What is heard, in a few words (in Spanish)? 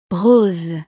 "rose", frances